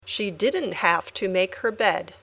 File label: Dictation